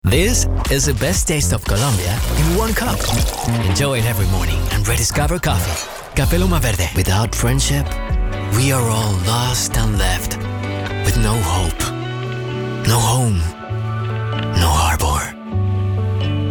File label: ENGLISH LATINO ACCENT VOICEOVER